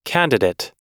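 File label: "candidate", US English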